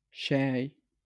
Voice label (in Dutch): "say", Zaghawa (Beria); thee-in-andere-talen